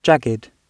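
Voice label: "jagged", British English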